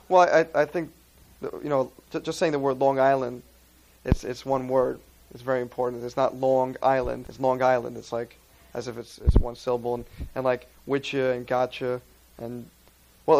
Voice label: New York Long Island male 27 Monologue; North America; US Northern East Coast Dialects